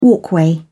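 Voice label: "walkway", British English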